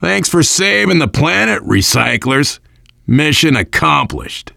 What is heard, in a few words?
recycling, voice, biker; Left 4 Dead 2; left4dead2 dlc3; survivor